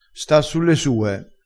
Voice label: "sta sulle sue", crespinese

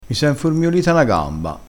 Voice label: "informiolito", crespinese

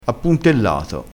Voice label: "appuntellato", crespinese

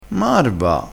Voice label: "marva", crespinese, vocabolario dialettale

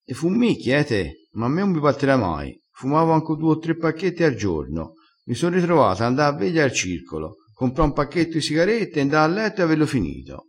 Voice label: "fummicchia", vocabolario dialettale

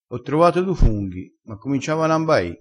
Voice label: "imbai", crespinese, vocabolario dialettale

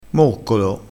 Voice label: "moccolo", crespinese

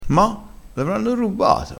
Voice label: "rubbato", crespinese

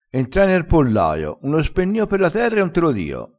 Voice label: "spennio", vocabolario dialettale crespinese

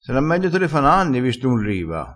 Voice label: "e sie", crespinese

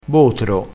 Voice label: "botro", vocabolario dialettale

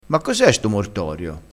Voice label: "mortorio", crespinese, vocabolario dialettale

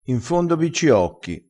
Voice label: "in fondo", crespinese

